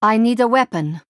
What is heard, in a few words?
Female Draenei ERROR NEED WEAPON